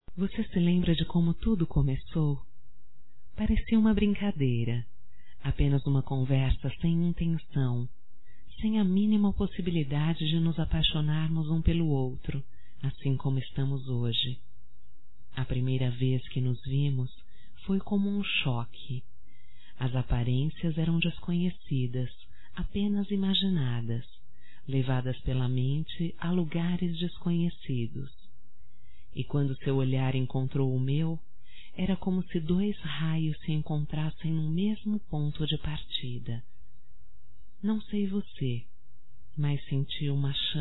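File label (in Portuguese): voz, Namoro por Telefone deu Certo, female, Telemensagens; Telemensagens 2017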